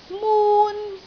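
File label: "mun1", Cantonese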